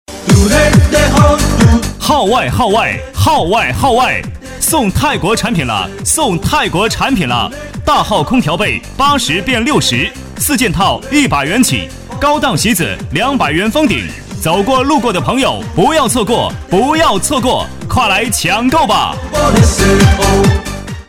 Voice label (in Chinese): 广告 促销 号外号外 激情, 男